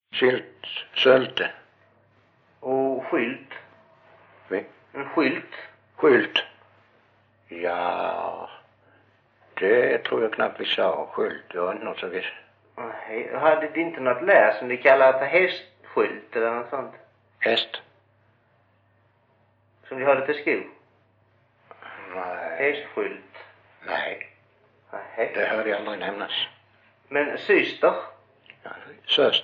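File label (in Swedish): Mörrum Blekinge, dialekter; Folkminnen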